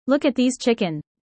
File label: user fast